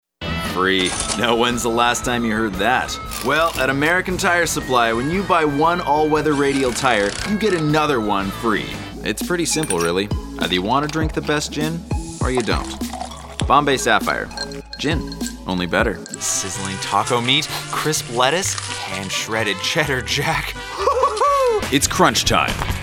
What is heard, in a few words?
Commercial